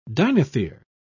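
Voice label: "dinothere", British English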